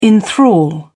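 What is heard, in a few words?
"enthral", English, British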